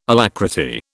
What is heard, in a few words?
"alacrity", British